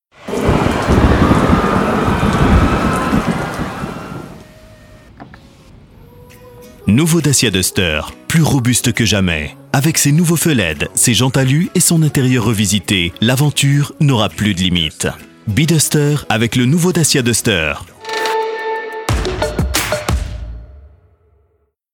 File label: French Voice Over TV Advertisement